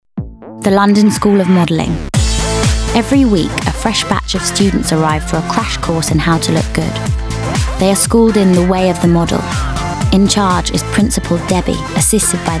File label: Narrative; Fresh, quirky, full of energy and extremely likeable.